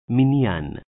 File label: "Minyan", Hebrew